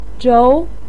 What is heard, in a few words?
"zhóu", voice pinyin